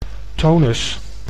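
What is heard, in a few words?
"tonus", Dutch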